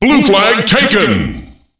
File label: blue flag taken, announcer voice lines; Unreal Tournament; ut2003